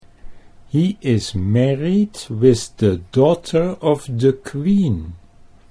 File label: married daughter queen, Dutch accent